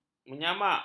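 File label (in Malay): "menyamak", Malay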